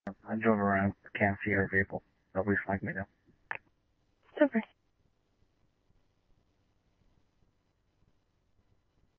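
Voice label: scanner, Phoenix Police Department; PPD-200 A; RWC; Thompson Peak High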